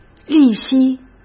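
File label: "利息", Mandarin Chinese; chinese flashcards; hsk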